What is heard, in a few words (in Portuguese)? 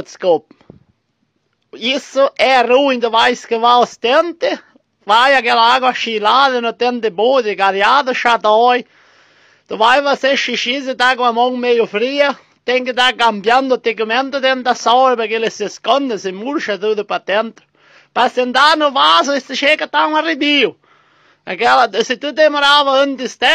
gaucho reclamando do frio